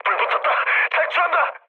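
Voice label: Korean on fire mood low; speech; War Thunder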